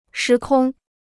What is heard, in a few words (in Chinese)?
"时空", Chinese